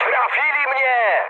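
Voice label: Polish aircraft destroyed mood high; War Thunder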